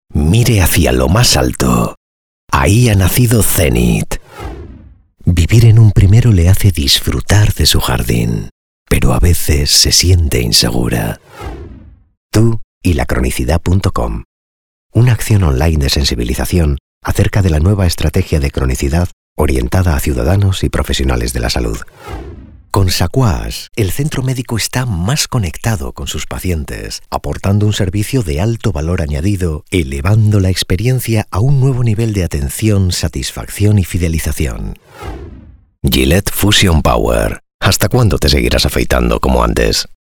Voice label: spanish narration; European Spanish Speaker.

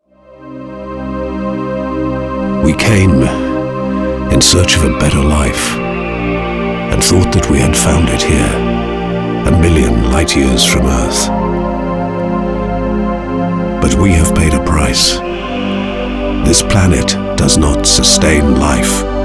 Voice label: british video games; Mid-range British voice, with depth and texture

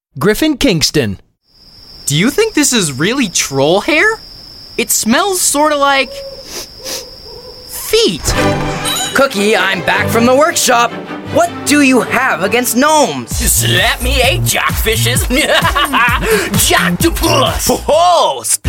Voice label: men animation; 16-25. Youthful and warm. The boy next door all the way to Anime Supervillain.

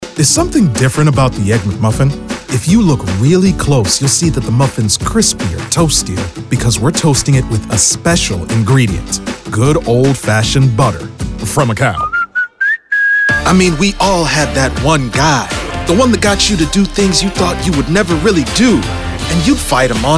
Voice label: men commercial

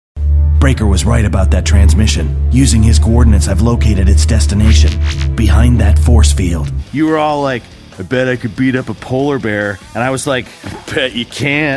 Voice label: men animation; Sincere guy next door with a hint of texture.

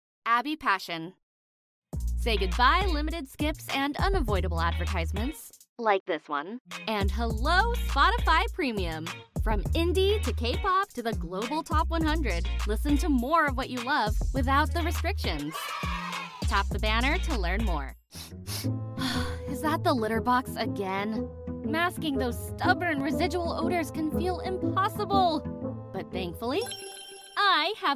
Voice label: women commercial; Bright, youthful, encouraging, and energetic feminine voice perfect for character work, narration, or commercial!

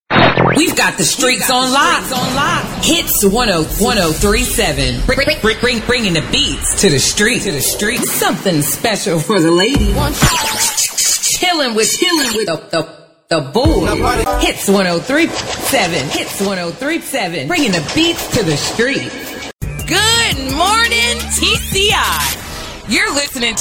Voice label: african american radio imaging; AFRICAN AMERICAN » AfAm. Unique, raspy, strong & full of versatility, specializing in urban reads.

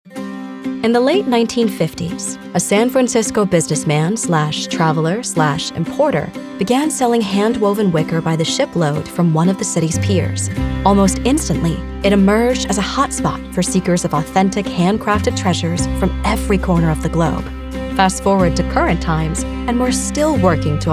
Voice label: women corporate; Warm, conversational, friendly, articulate, caring, genuine, sensual, luxurious, classy.

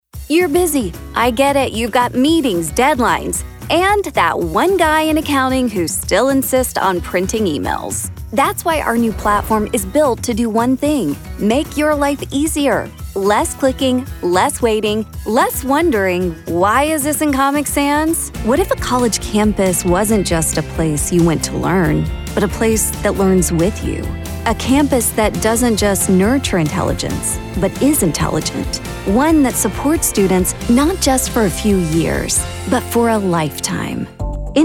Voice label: women narration; Sweet, grounded, and sparkly.

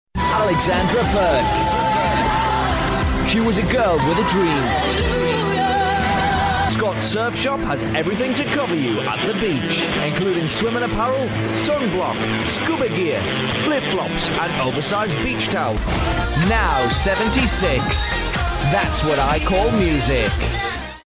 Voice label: british commercial; Upbeat radio jockey British announcer

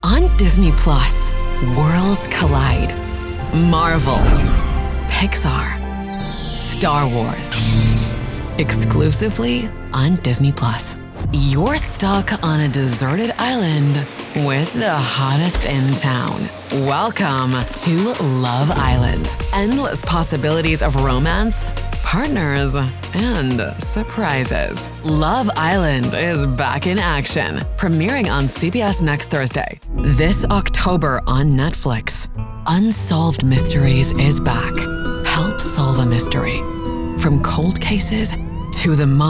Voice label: women promos

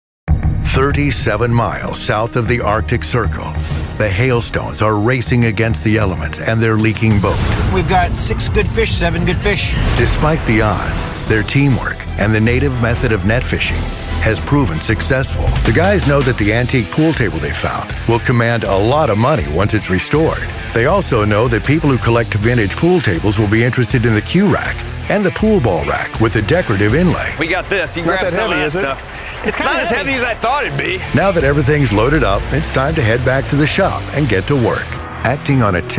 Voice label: men narration

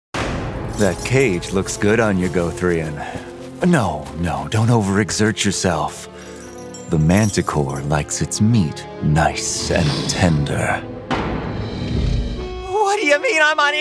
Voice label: men animation; Smart, funny, and cool. A Millennial/Gen-Z hybrid who knows how to set up your printer.

